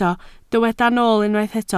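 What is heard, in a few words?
"nool" Welsh, spoken word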